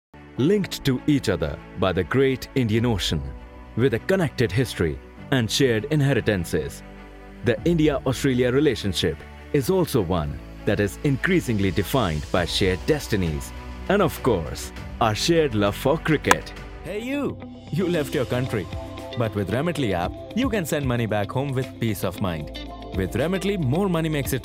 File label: English; Mix Reel